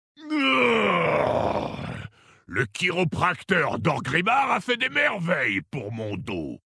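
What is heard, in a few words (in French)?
French Male Blague; Orc Maghar; Kyrotracteur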